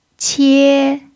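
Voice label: "qie" first tone, chinese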